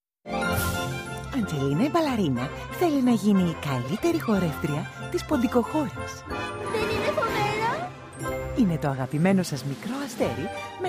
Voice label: greek promo

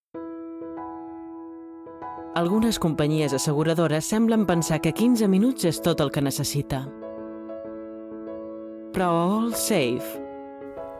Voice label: catalan

